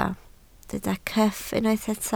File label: "cyff", Welsh